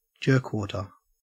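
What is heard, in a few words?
"jerkwater", Australian English; pronunciations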